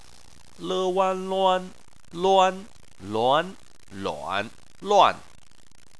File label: "luan", chinese